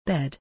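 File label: "bed", English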